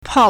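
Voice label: "pao4", Mandarin Chinese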